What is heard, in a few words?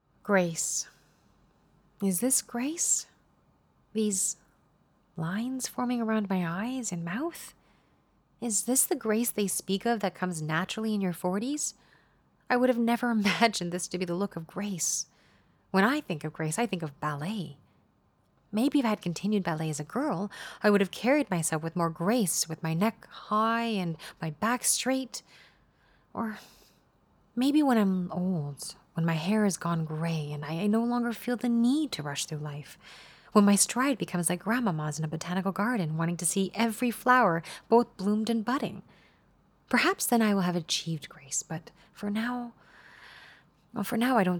Audiobook